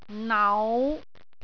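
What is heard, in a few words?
"nau5", Cantonese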